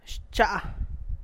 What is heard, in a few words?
reflection, San Lucas Quiaviní Zapotec; xcha; 1. light, especially sunlight; 2. reflection